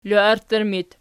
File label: "loarttur", archi